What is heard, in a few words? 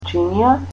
"plate", Macedonian